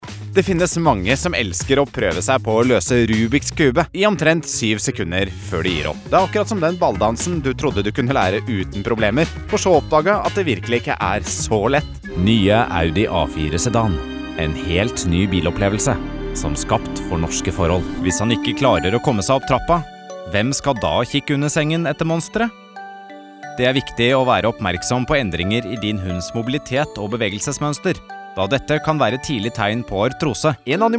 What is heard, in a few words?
norwegian commercial; Spanien; Norwegisch; professioneller norwegischer Sprecher